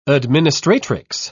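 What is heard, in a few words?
"administratrix", British English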